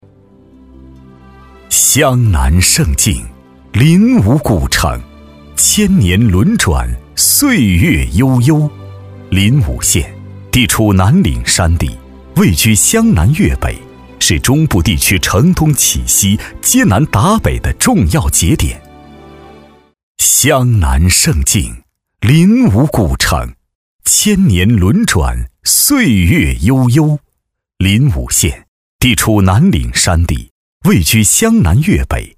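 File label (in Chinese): 男【宣传】大气; 临武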